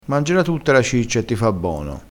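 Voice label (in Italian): "bono", vocabolario dialettale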